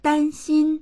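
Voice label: "dan1xin1", Chinese; 担心; dānxīn; 心配する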